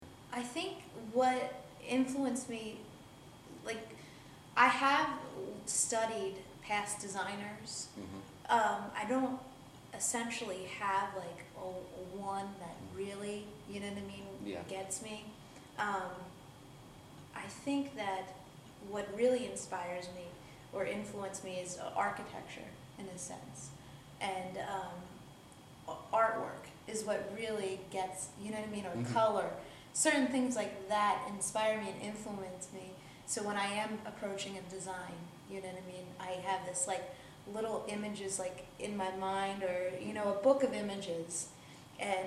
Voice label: US New Jersey Trenton Conversation, dialect samples; usa